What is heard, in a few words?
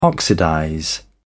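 "oxidize", British English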